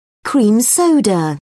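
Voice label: "cream soda", English, British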